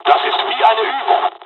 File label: japanese; first wave; War Thunder